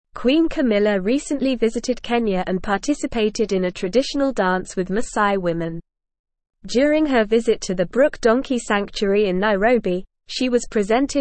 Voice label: English Newsroom Advanced NORMAL Reading; Queen Camillas Kenya Visit Cultural Engagement and Wildlife